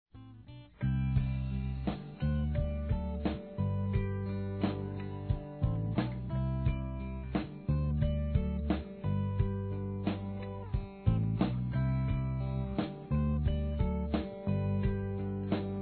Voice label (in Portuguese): Neutro, fem; Telemensagens 2017